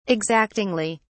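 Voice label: "exactingly", British English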